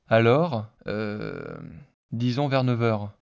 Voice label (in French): hesitation synthese basique